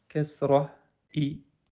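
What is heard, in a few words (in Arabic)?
"كسرة", Arabic; quiz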